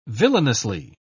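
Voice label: "villainously", English, British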